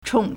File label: "chǒng", Chinese